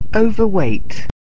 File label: "overweight", English